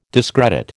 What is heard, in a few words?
"discredit", American